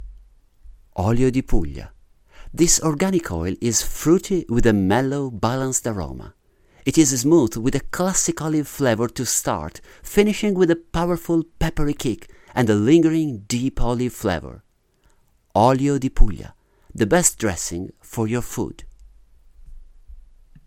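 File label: Italian, accent English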